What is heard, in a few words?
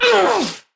Pain Ranged, Battle Barks; Tau; Tau Dax